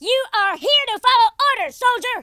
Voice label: yes sir, Drill Sergeant; speech

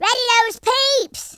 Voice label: what the, Gangsta; speech

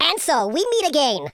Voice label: "revenge", super hero speech

